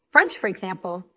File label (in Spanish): Voice Acting es